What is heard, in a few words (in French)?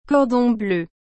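"cordon bleu", French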